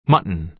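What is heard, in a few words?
"mutton", American English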